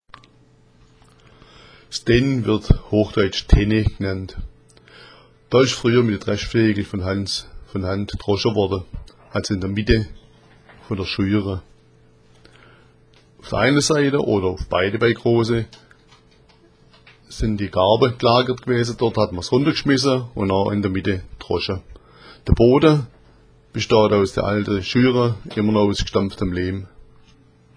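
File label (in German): "tenn", schwäbisch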